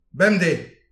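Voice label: "bemdez", Breton; petit precis de prononciation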